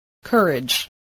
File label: "courage", voice spelling